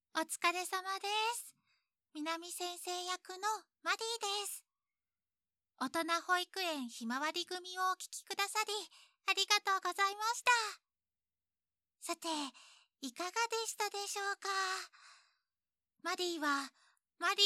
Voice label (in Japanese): フリートーク, ASMR